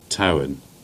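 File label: "Towan", Cornish; place-names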